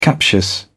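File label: "captious", English, British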